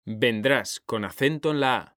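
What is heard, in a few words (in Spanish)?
"vendrás", Spanish; mekanta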